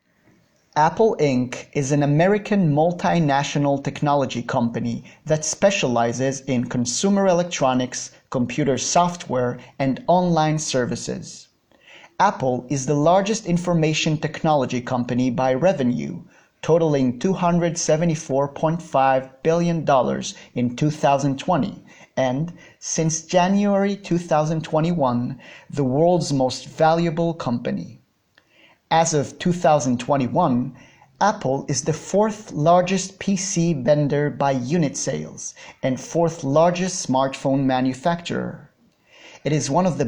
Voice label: English